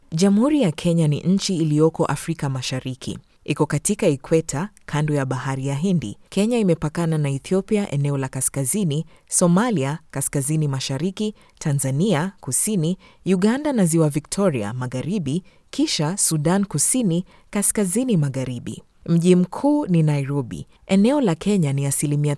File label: female african kenyan swahili documentary narration